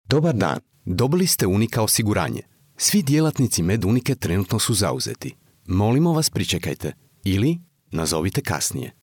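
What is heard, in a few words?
Croatian, IVR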